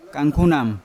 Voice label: "kangkunam" caterpillar, Yawa